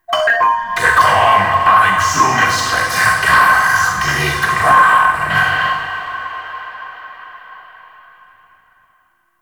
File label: Interior Orbital Station Announcement; Warframe; Tau